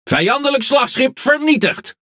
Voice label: Speech Destroy Enemy Battleship, NL; World Of Warships